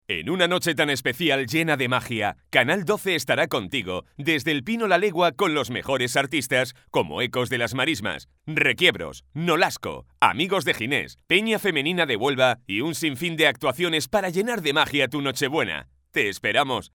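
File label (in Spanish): promo locutor, Locuciones de promos profesionales para emisoras de televisión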